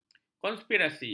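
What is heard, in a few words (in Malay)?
"konspirasi", Malay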